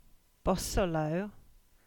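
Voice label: "Bosullow", English; place-names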